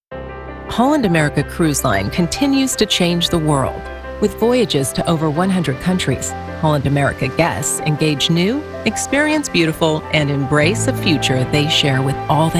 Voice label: EXPLAINER

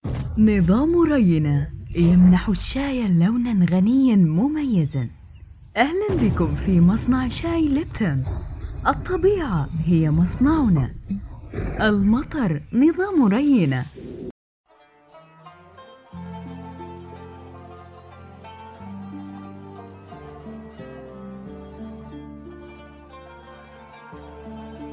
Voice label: Gulf

